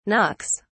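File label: "knocks", English, British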